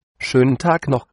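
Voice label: nice day, German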